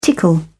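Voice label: "tickle", British English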